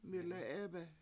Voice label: mI7le7 7Ebe7 everyday, Washo

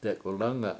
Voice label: "detgulAnga7" cowboy rider, Washo

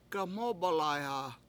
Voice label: pull it off quick, Washo; gaMOOb1layha

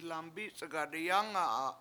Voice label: "lambIc7iga7 diyAnga7a", washo; I Bumped Into Door

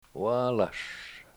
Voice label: "waalash", Washo; bread

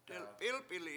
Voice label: "delp7Ilp7ili", Washo; blue

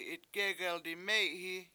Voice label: I choose chair, Washo; itgEgel diMEy7hi7

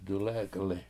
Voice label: He Is Cooking, Washo; dulEk7ili

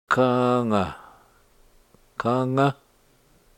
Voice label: "kanga", Washo, cave

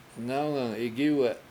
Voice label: "ngAwngang igIwe7", washo; child grows

